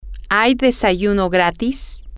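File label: is there a continental breakfast, spanish, female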